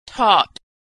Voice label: verb "taught", pronunciation